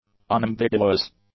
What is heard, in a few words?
"unambiguous", English; adjectives